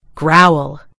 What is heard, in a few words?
"growl", en GB; gcompris